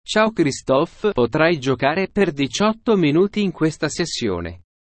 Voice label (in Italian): speech sample it